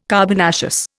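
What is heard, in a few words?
"carbonaceous", Indian English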